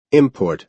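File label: "import", American English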